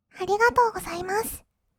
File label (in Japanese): ありがとうございます, kawaii voice; 挨拶